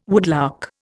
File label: "woodlark", Indian